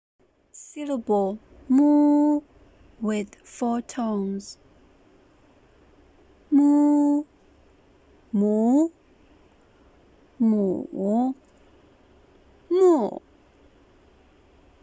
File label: mu with 4 tones, Mandarin